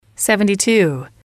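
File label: "seventy two", English, female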